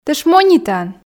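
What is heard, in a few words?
"тэ шмонитан", Komi, female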